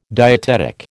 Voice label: "dietetic", American